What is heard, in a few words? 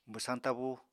"bwsañ tabú", Apatani; Green Ratsnake (Senticolis trias